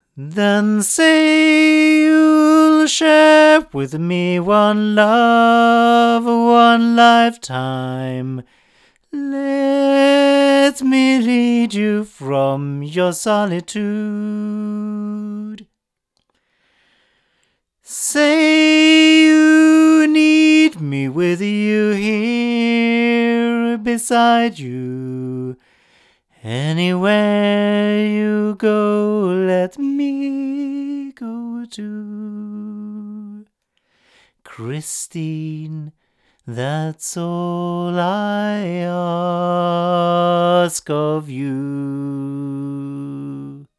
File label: male; JJLabs ND6000; microphones